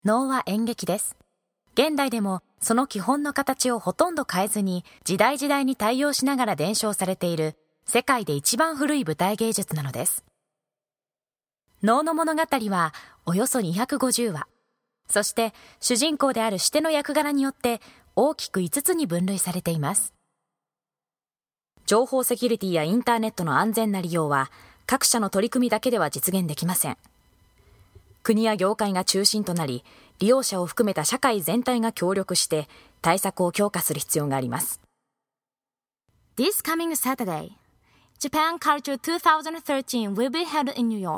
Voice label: japanese commercial; Bright and upbeat Japanese voice.